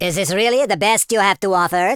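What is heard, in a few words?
"missed", male villain voice; speech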